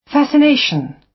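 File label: "fascination", British English; Design and innovation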